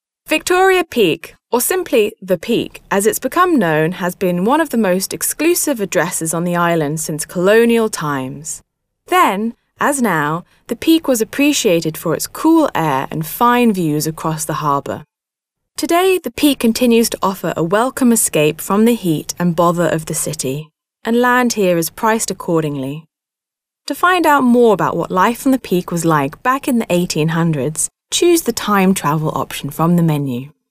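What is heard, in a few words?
British female corporate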